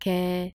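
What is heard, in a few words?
"け", Japanese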